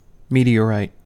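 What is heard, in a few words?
"meteorite", US English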